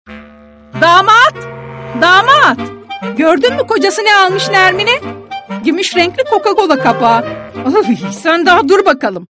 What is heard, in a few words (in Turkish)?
anima karakter, seslendirme